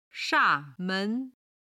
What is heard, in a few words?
"sha4men2", chinese; chinese travel